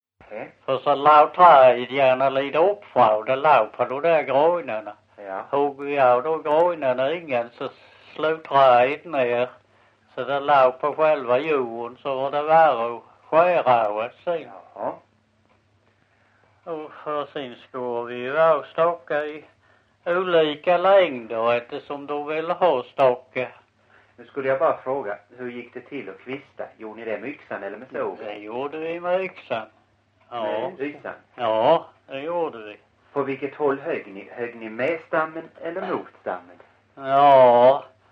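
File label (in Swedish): Visseltofta Skåne, svenska sagor dialekter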